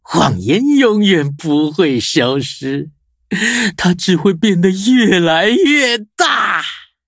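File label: upgrade, Traditional Chinese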